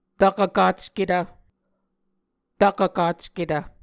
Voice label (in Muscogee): "tvkvkacketv", Muscogee